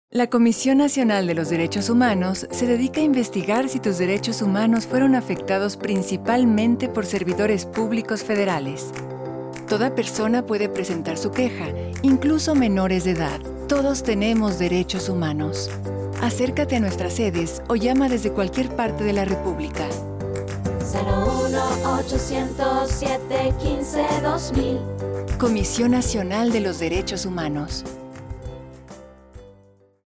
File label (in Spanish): Spot esp; Campanas